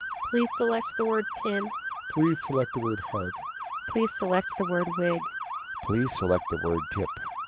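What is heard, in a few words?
opus narrowband 13200 siren; Opus13,200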